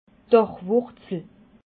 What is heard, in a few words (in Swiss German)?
"dachwurzle", alsace